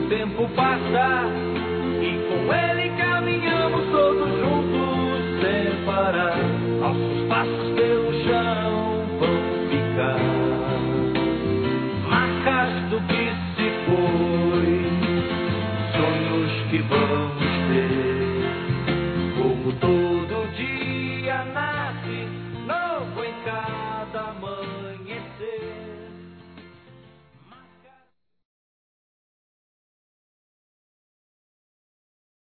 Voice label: ANO NOVO P FILHO, fem; Telemensagens; Natal e Ano novo

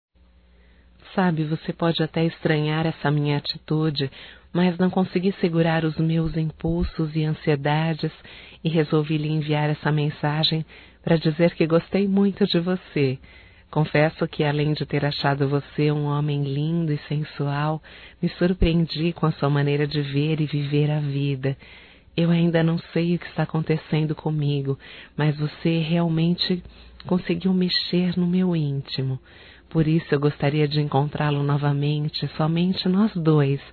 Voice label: CONQUISTA (F)

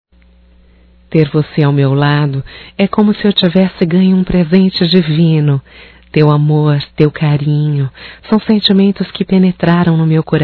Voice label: Romântico Feminino

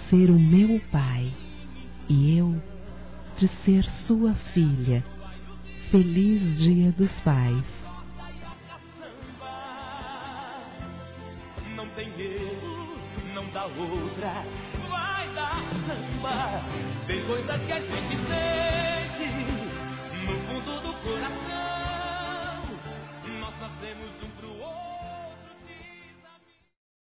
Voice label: VOCÊ É UM GRANDE AMIGO F; Telemensagens 2017

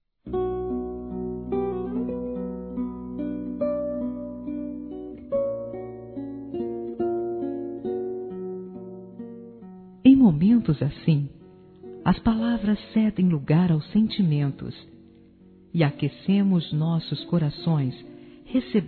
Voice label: PESAMES M; Telemensagens; masc; Telemensagens 2017

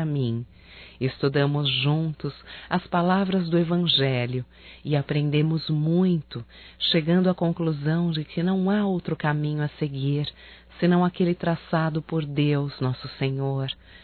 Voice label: NATAL ANO NOVO IRMAO EM CRISTO (F); Telemensagens 2017

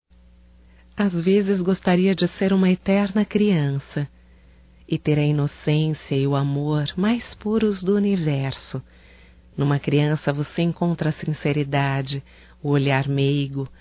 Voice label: Dia Crianças Feminino, Só Voz; Telemensagens; DATAS COMEMORATIVAS

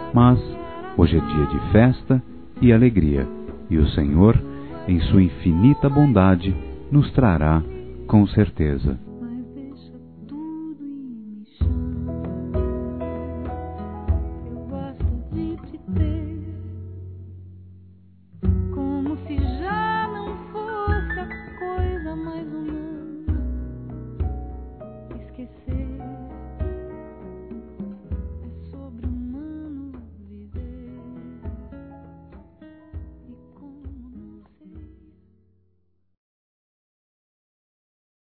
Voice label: PARA PAI, Telemensagens, Páscoa, masc

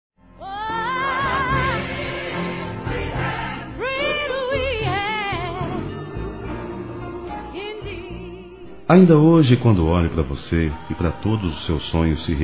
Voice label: Aniversário Filho Masculino, Telemensagens; ANIV. Evangelico

